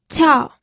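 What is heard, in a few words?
"qiao", Mandarin Chinese, fourth tone; pinyin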